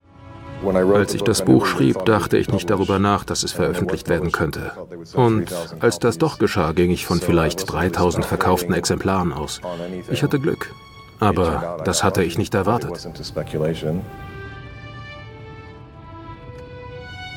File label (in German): Voice Over, maenner; Arte; Die Wohlgesinnten